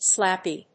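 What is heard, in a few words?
"Slappy", English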